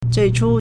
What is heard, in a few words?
"zui4chu1", Chinese